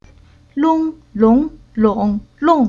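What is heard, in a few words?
"Long", Chinese syllable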